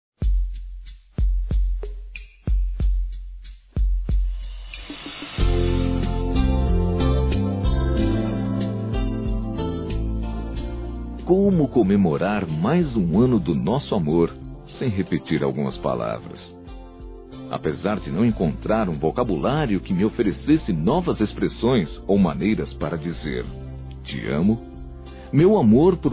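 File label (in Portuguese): Casamento Romantico Masculino; Aniversários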